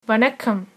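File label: hello, tamil, female; வணக்கம்!; vaṇakkam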